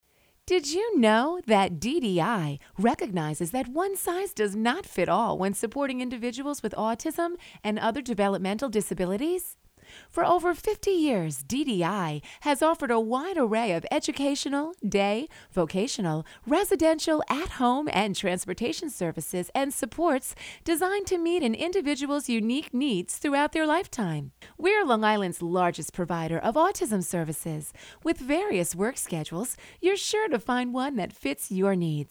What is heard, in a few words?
HOLD MESSAGES